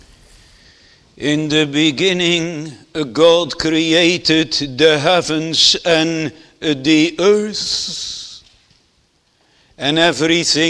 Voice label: Sermon